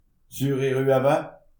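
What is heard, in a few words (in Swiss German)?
"sure", Alsatian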